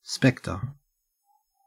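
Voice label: "specter", Australian English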